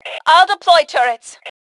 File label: Self Task Deploy Turrets, Female; T2 Female 01